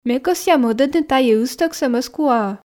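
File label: Komi, female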